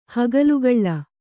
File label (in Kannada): "ಹಗಲುಗೊಲೆ", kannada